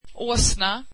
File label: swedish donkey